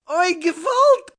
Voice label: "Oy Gevult", Reaction, negative, male, Jewish; Exclamation Sound Effects

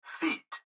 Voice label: Gps Voice, Male; Speaker Adult Male; Recorded Voice

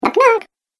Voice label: Cartoon Game Voice "Knock Knock"; Cartoon Voice Sound Effects

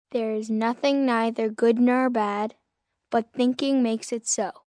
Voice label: Nothing Good Or Bad, Sayings, 1 boy, 9 yrs

